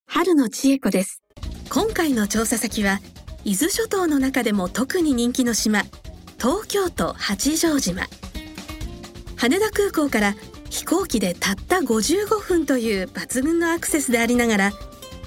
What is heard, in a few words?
female breakfast television